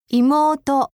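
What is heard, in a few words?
"imouto", Japanese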